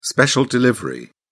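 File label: "special delivery", British English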